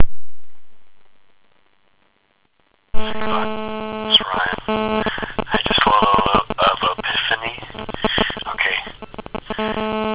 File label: voice mail